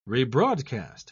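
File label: "rebroadcast", British English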